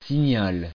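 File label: "signal", French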